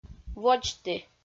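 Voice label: "водзті", Komi, female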